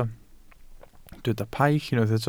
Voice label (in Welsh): "paill", Welsh